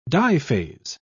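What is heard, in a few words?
"diphase", British English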